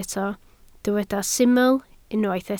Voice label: "syml", Welsh